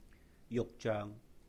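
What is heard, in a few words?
"yuhk-jeung", Cantonese; Bolognese sauce; 肉醬; juk6zoeng3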